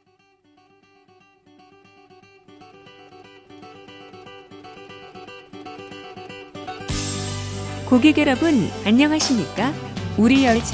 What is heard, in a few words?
departure to busan, Train Announce